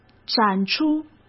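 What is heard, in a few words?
"展出", Mandarin Chinese; hsk; chinese-flashcards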